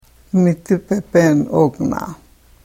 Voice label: karuk